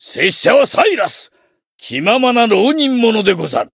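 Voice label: Voice greeting, Japanese; cyrus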